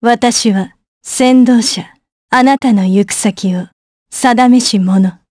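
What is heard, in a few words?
vox get Japanese; Isolet; kingsraid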